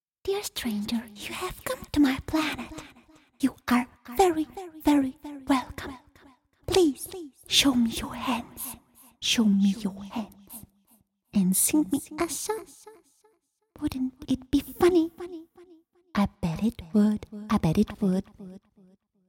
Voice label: cute but freaky alien a vocal example for video games